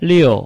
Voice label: "liu4", Chinese